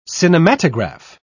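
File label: "cinematograph", British English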